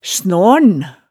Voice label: "snaaln", hemsedalsmaalet

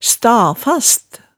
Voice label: "sta-fast", hemsedalsmaalet

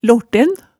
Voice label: "lorten", hemsedalsmaalet, tale

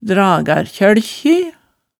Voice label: "dragsrkjølkji", hemsedalsmaalet

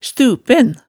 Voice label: "stupen", hemsedalsmaalet

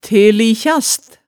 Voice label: "telikjast", hemsedalsmaalet tale

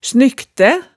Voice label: "snyktæ", hemsedalsmaalet, tale

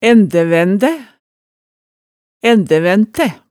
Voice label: "ændævændæ", hemsedalsmaalet tale

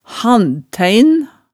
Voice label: "handtæin", hemsedalsmaalet

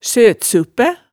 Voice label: "søtsuppæ", hemsedalsmaalet tale

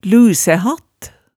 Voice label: "lusæhatt", hemsedalsmaalet tale

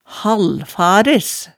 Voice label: "hallfares", hemsedalsmaalet

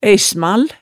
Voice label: "æismal", hemsedalsmaalet tale

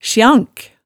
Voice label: "sjank", hemsedalsmaalet

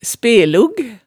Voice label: "spelug", hemsedalsmaalet

